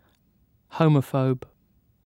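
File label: "homophobe", British English; pronunciations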